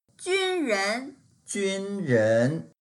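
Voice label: "jun1ren2", Mandarin Chinese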